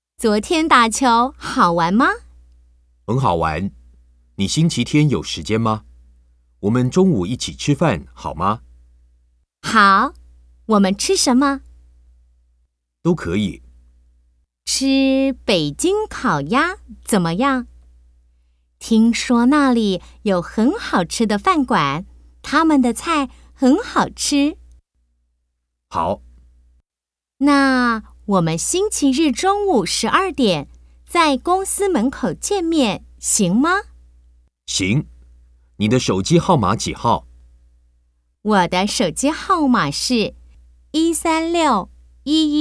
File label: Dialogue, Chinese